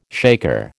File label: "shaker", American